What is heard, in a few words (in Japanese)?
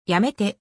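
"やめて", Japanese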